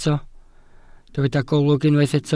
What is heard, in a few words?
"golwg", Welsh